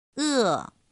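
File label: "è", Mandarin Chinese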